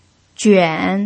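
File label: "juan" third tone, pinyin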